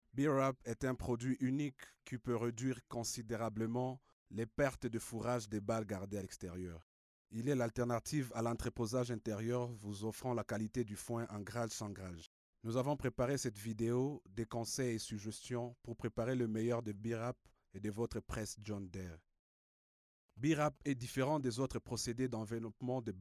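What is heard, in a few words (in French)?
french african male narration; voice demos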